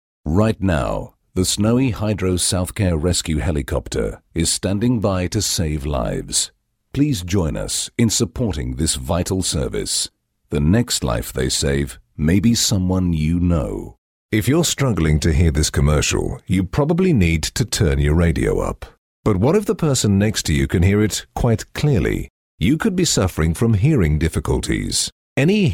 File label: Soft Sell